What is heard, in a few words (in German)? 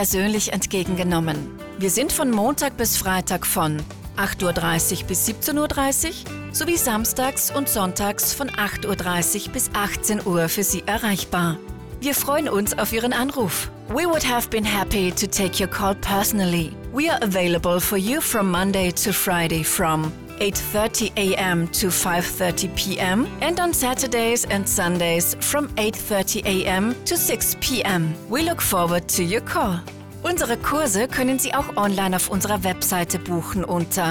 Skischule Obergurgl Nachtansage Winter; telefonansagen tourismus